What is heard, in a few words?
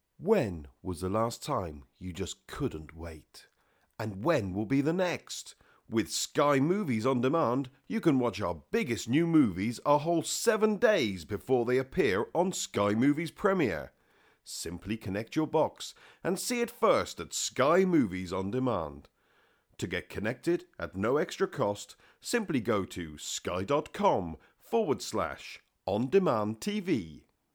TV Advert